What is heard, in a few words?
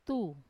"tu" bay, Wamesa